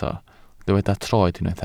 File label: "troed", Welsh